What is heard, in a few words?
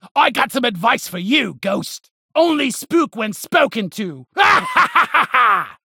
Halloween Slap Ghost Spacerig